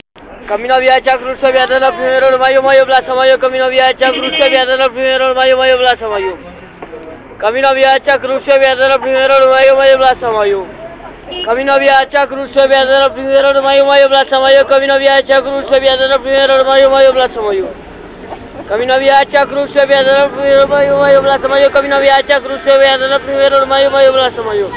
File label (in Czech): hlaseni mhd, La Paz